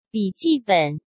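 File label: "笔记本", Mandarin Chinese; hsk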